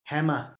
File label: "hammer", English